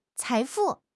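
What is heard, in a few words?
"财富", Chinese; HSK6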